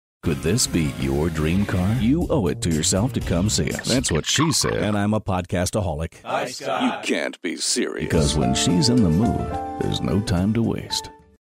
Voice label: commercial

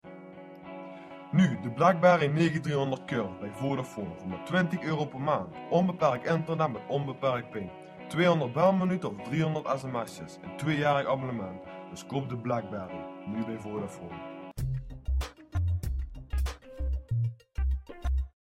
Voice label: radio commercial blackberry

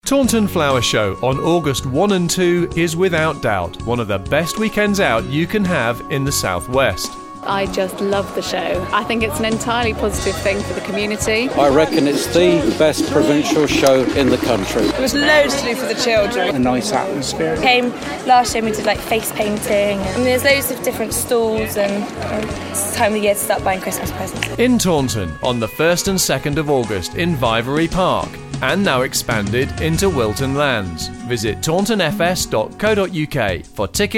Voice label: radio advert

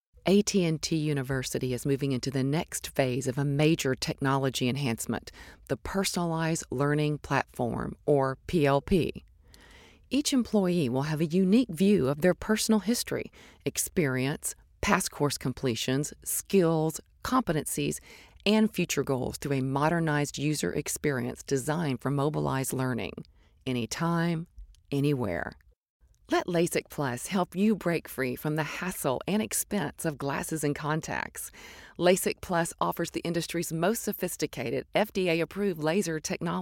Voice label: voice over professional

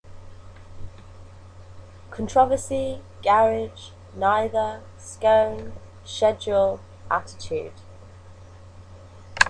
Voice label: east sussex female

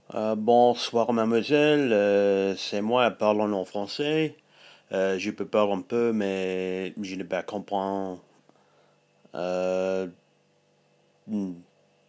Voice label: french

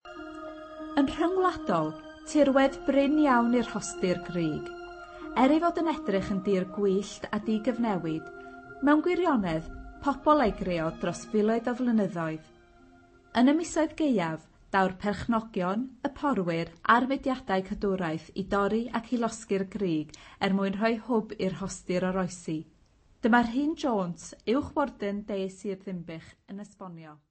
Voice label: tour Welsh; moel famau

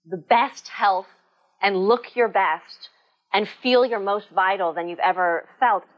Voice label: mystery voice

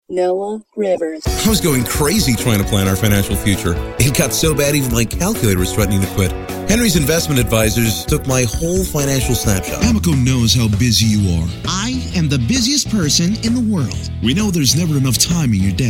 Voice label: commercial

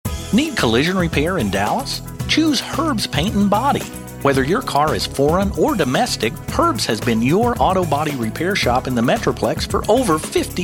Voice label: commercial

